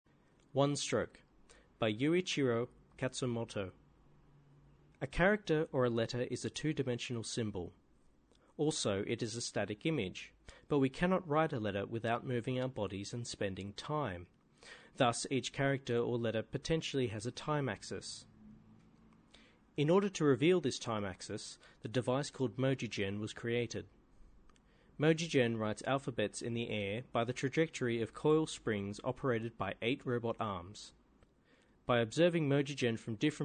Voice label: English; ASIA2016; One-Stroke